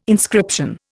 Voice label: "inscription", Indian